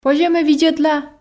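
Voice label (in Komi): позьӧ ме видзӧдла, Komi, female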